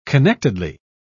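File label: "connectedly", British English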